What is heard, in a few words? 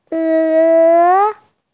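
"e2", Mandarin Chinese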